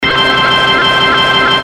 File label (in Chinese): 棒球广播; 音MAD; 蓝蓝路